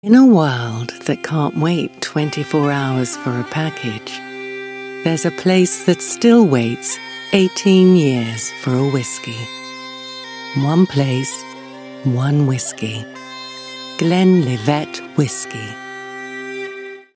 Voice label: UK English Whisky; Glenlivet